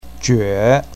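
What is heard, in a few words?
"jue3", Mandarin Chinese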